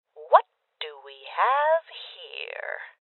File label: Voices Open Safe Female; rebel cops 2019 gamerip